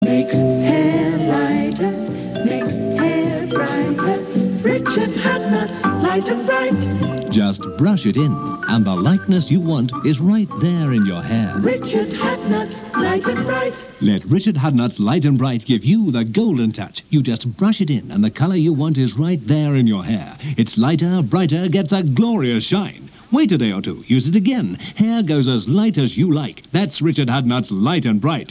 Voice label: Radio London commercial; Richard Hodnut Light and Bright